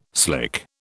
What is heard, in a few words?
"slick", British